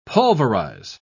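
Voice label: "pulverise", British English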